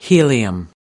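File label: "helium", American English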